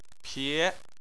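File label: "pie" second tone, Chinese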